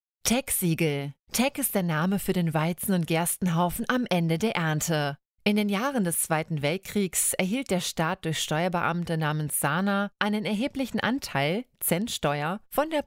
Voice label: deutsch